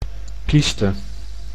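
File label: "kyste", Dutch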